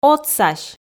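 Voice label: "отсась", Komi, female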